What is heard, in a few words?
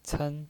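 "cen", Mandarin Chinese, first tone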